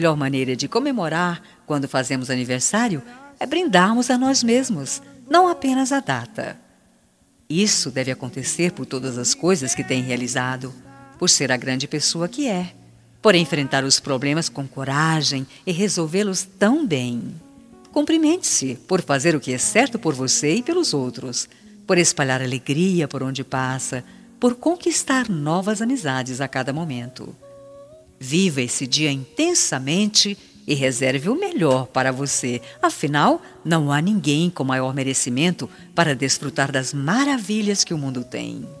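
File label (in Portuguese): birthday COMADRE female, telemensagem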